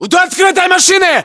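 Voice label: landmark near hatchback, Russian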